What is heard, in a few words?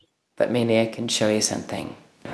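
Voice Acting Spanish Female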